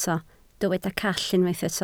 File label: "call", Welsh